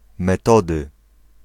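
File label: "Metody", Polish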